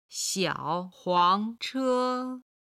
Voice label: "xiao3 huang2 che1", Chinese

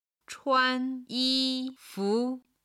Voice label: "chuan1 yi1 fu2", Chinese

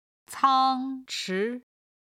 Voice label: "cang1 chi2", Chinese

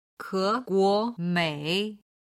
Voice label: "keguomei", Chinese

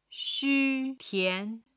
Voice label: "xu tian", chinese

